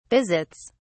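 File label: "visits", English, British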